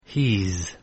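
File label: "he's", English contraction; Contractions Pronunciation Practice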